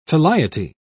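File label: "filiety", English, British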